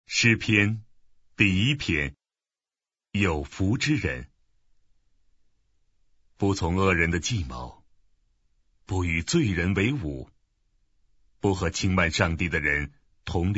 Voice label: Chinese Mandarin Psalm